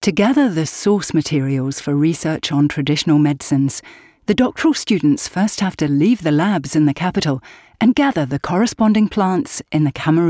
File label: doku traditionalmedicine